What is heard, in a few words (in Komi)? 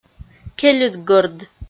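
"кельыдгӧрд", Komi, female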